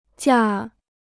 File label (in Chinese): "驾", Chinese